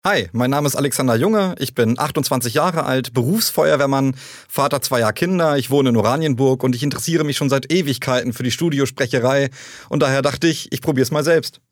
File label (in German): Junge Vorstellung